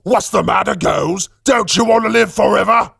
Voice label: battlecry; Saxton Hale